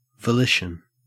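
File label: "volition", Australian English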